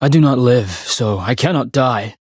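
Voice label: Voice encounter fear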